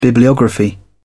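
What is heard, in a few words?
"bibliography", British English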